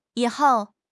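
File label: "yi3 hou4", Chinese; HSK; HSK2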